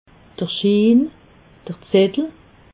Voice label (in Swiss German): "schiin", alsace